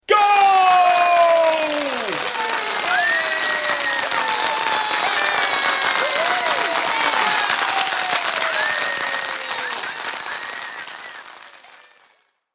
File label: Goal Shout, Sound of man shouting ‘Goal’ at sports event with crowd cheering and rhythmic uniso; Sound of man shouting ‘Goal’ at sports event with crowd cheering and rhythmic unison clapping.; Crowds